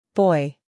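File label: "boy", American English female; everyone-can-use-english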